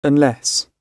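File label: "unless", British English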